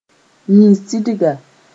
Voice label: "yĩn-sidga", Mooré

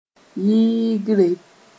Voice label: "yɩɩgre", Mooré

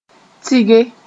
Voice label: "tɩge", moore

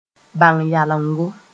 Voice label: "bãng-yalengo", moore

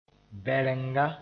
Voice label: "bɛrenga", moore

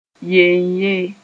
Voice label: "yeynye", Mooré; solely

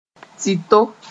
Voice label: "tɩto", moore

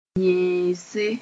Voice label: "yẽese", Mooré

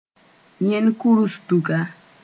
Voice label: "yẽn-kʋrsdga", Mooré

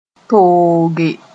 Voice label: "tooge", Mooré

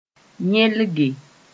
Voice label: "yẽlge", Mooré

